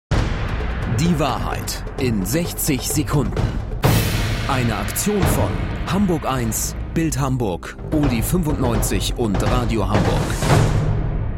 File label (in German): stimmprobe tvtrailer